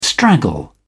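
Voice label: "straggle", British English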